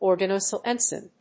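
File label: "organo-silicon", English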